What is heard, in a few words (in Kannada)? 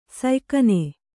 "ಸಯ್ಕನೆ", Kannada